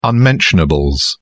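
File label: "unmentionables", British English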